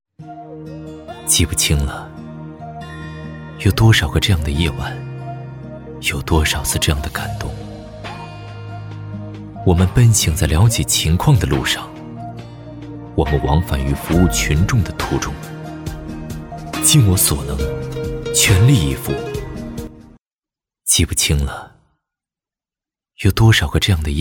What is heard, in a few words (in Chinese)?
男, 专题, 扶贫